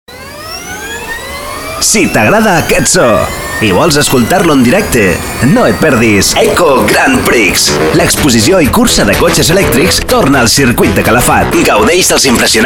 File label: Catalan Radio Comercial